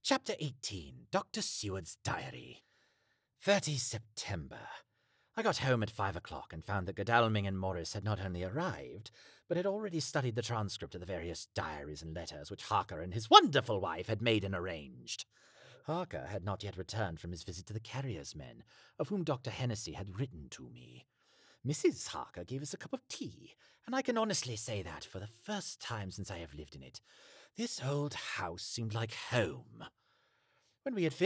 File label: Audiobook; Renfield